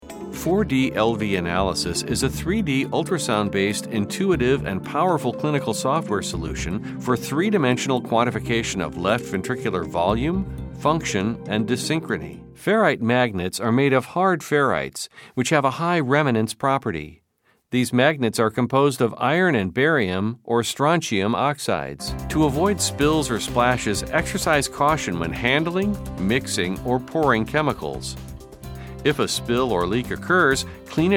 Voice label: Narration, Voice-Over